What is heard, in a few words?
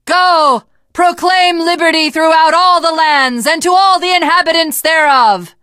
teengirl, voice; Left 4 Dead 2; l4d1 finale